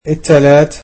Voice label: "Tuesday", egyptian